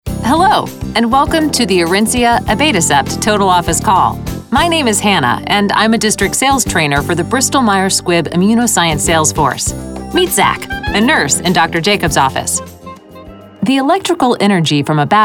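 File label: E-learning, Female Voice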